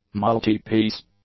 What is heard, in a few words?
"multi-piece", English; adjectives